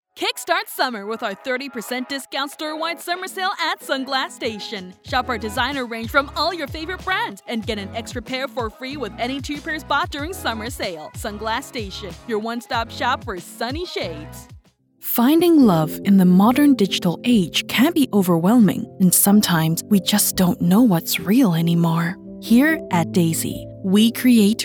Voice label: Commercial